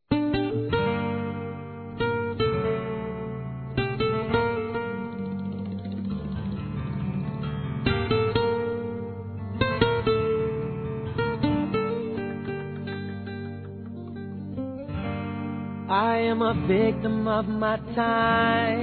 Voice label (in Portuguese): FILHO(A) AINDA NÃO NASCEU INFANTIL, Telemensagens, Dia dos Pais; Telemensagens 2017